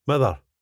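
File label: "mither", Scottish English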